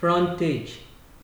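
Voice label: "frontage", Nigerian Pidgin, male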